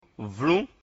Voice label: "vlun", mina; mina-alphabet